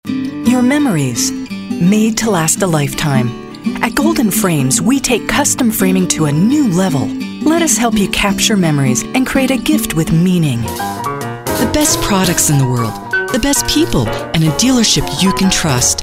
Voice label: Commercial